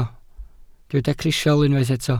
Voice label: "crisial", welsh